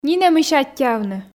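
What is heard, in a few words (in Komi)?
нинӧмысь аттьӧавны, Komi, female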